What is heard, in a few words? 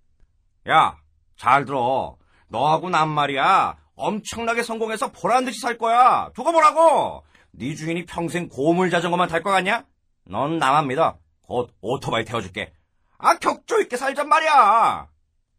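Korean male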